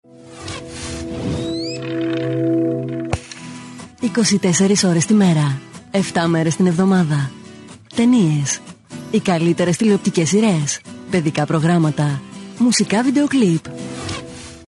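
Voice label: Greek, female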